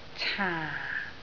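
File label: "caan4", Cantonese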